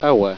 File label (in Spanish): "agua", spanish